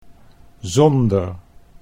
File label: "zonder", Dutch